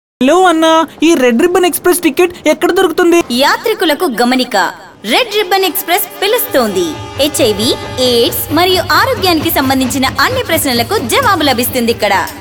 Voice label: Radio Telugu